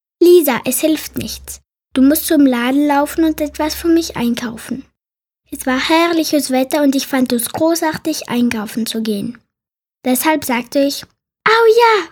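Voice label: German, child, character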